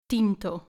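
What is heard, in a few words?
"tinto" Spanish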